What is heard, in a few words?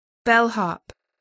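"bellhop", English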